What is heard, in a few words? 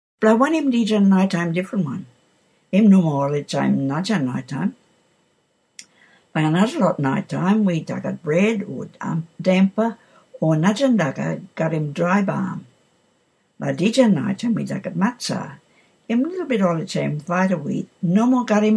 kriol